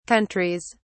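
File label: "countries", British English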